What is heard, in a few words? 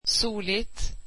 sunny, swedish